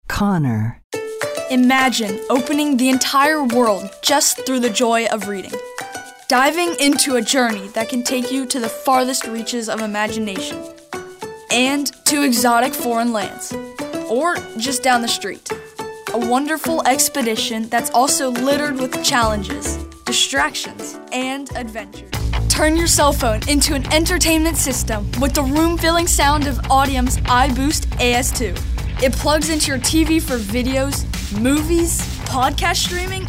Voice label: kid

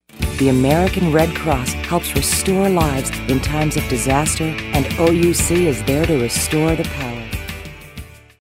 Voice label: authoritative

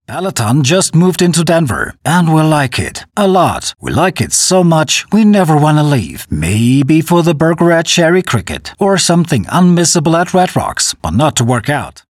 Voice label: Commercial; Peloton

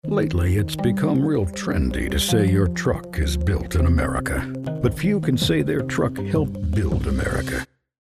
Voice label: tough gritty

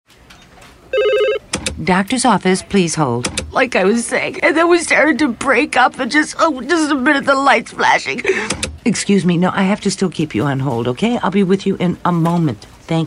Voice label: Receptionist

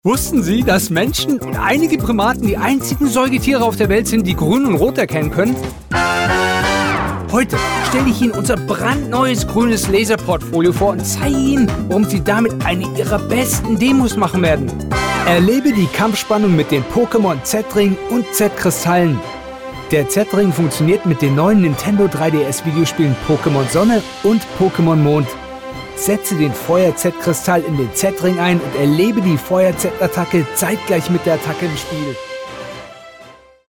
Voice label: High Energy

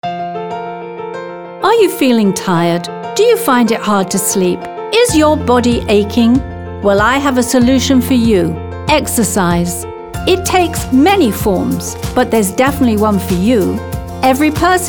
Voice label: Motivational

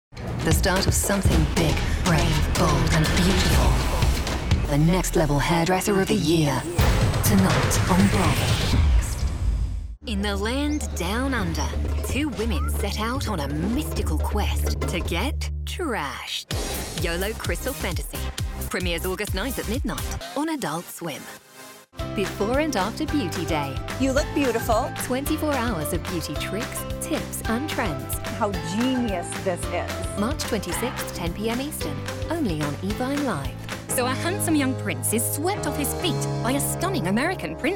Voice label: Promos

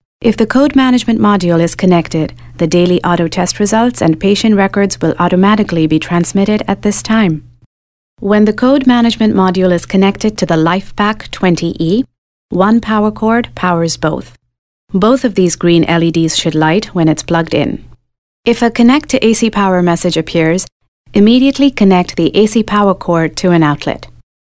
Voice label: Instructional

